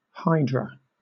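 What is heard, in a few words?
"hydra", British English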